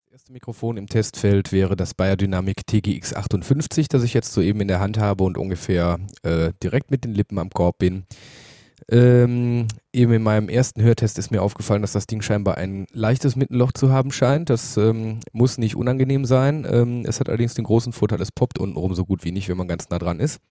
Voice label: TGX58, vocal mics